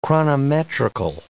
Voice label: "chronometrical", English